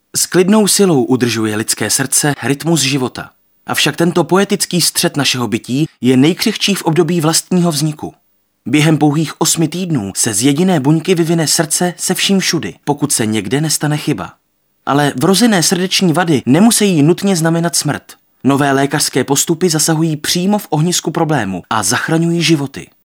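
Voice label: male Czech documentary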